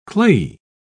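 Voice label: "clayey", English, uk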